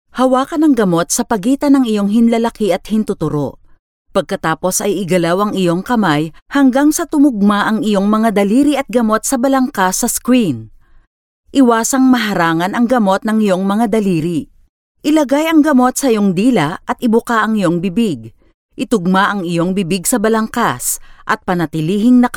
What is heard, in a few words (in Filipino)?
Tagalog